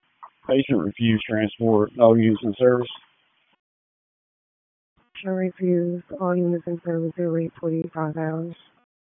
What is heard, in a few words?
PS TAC, p25; wake